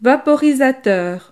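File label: "vaporisateur", French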